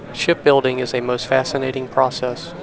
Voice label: Noisy Speech Babble Noise SNR 15 dB